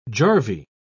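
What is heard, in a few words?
"jarvey", British English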